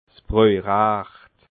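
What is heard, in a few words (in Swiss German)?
"brojrecht", Alsatian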